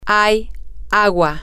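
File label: there is water, Spanish, female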